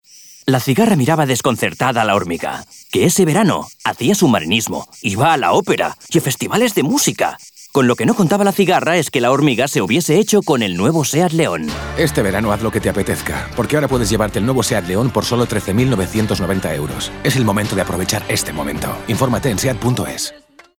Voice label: male spanish comercial; ideasonora